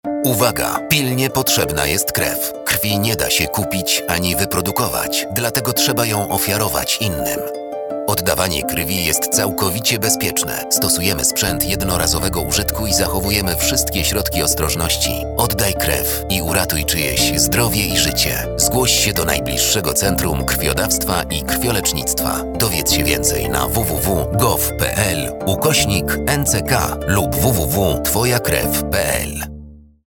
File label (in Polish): spot radiowy